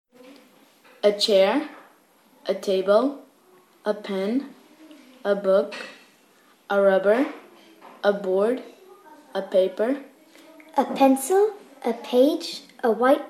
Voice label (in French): anglais lecon school